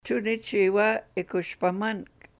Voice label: tun ichi iwa ikushpamank, sahaptin